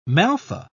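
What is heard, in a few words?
"maltha", English, uk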